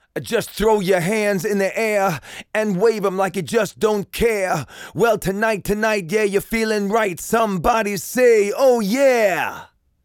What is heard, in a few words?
Rap - Medium Compression